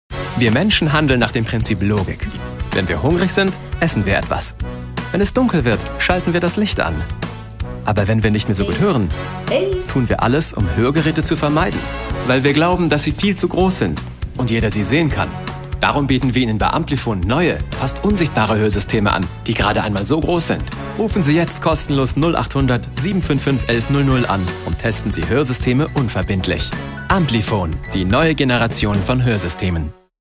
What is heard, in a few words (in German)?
TV Spot; Amplifon; Prinizip Logik